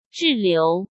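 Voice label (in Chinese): "滞留", Mandarin Chinese; hsk; chinese-flashcards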